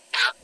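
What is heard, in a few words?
voice acting, es, female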